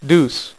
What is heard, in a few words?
"deuce", Dealer's Speech; PowerPOKER Demo